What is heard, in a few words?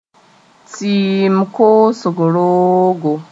"tɩɩm koosg roogo", moore